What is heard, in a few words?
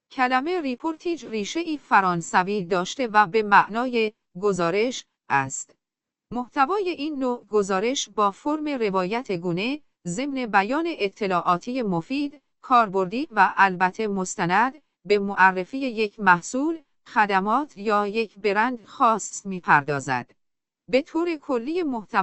reportage ads podcast